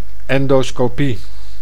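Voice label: "endoscopie", Dutch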